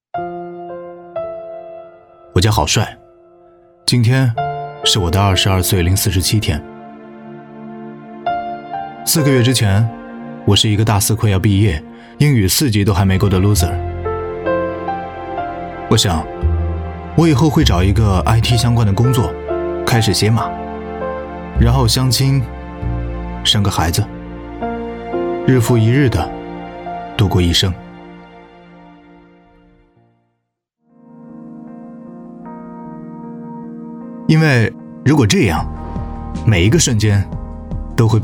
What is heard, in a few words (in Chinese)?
年轻独白; 微电影; 运动员